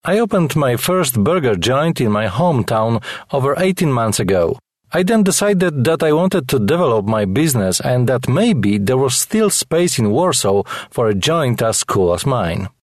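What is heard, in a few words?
Polish, male, English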